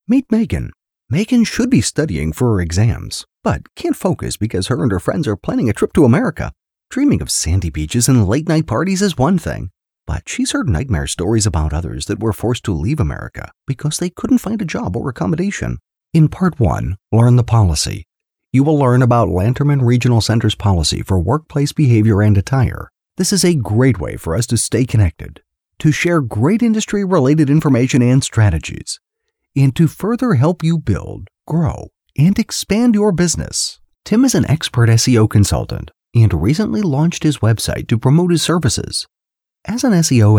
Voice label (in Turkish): Amerikan Ingilizcesi Erkek